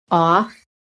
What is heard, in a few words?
"off", american